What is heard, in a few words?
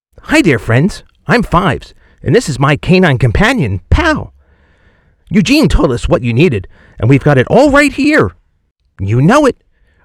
Voice Mimicry